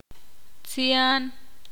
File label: ixil; lejos